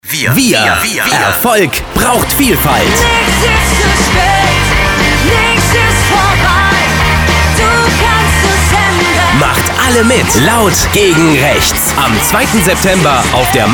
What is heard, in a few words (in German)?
Radiospot